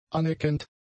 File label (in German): "aneckend", German; adjectives